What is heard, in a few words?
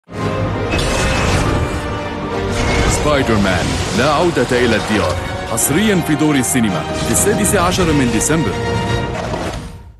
arabic voice commercial